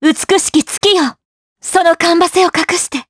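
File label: Vox Skill Japanese; Laudia; kingsraid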